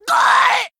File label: Male Primitive Goblin Death